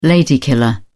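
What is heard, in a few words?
"lady killer", British English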